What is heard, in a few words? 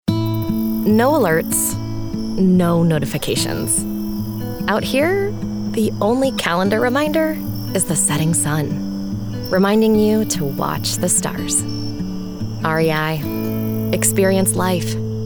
Commercial